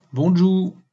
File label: Walloon hello